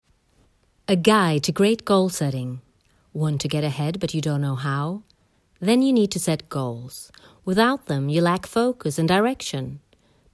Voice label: US english infomercial